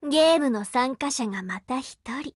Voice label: BUILD OVER JP; GF Alarm; SIGMCX